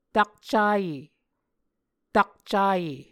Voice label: "takcaye", Muscogee